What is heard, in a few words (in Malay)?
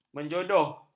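"menjodoh", Malay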